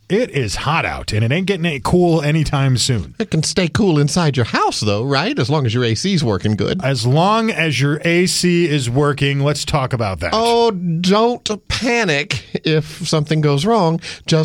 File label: RADIO AD